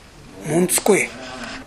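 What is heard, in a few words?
"montukoe", Minamiyamagata dialect Japanese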